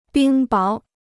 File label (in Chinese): "冰雹", Chinese